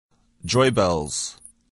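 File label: "joy-bells", English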